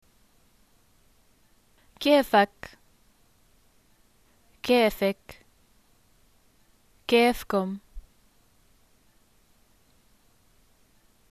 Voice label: how are you, arabic levantine